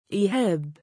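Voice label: "2iihaab" male, Arabic; إِيهَاب; イーハーブ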